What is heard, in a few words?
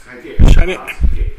"shane", minamiyamagata